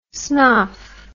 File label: "snoff", english